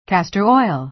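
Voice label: "castor oil", American English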